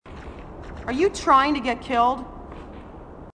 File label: female marine, sassy